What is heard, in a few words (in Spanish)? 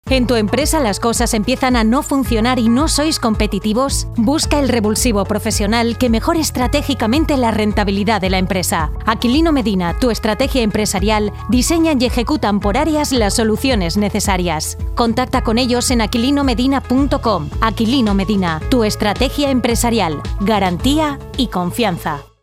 cuña; MEJORA